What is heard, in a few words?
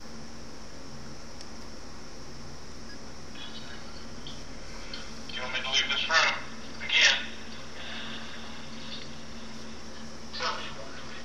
EVP; myrtle beach case